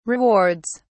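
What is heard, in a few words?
"rewards", British English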